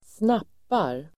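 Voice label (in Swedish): "snappar", Swedish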